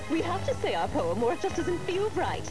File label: poem